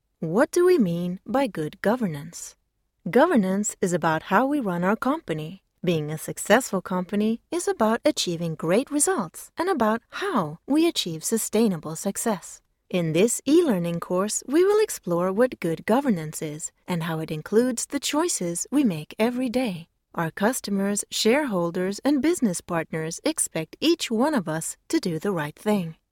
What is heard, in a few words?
english neutral training e-learning